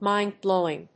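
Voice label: "mind-blowing", English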